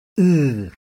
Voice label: close back unrounded, IPA sounds